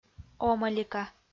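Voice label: "омӧлика", Komi, female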